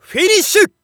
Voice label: Narration Finish; Hanyou